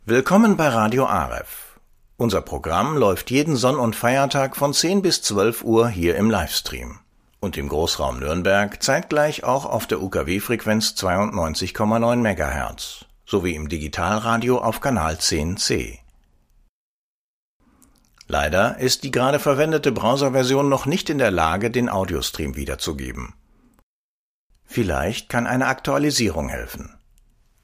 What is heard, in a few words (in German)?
Ansage Aktualisierung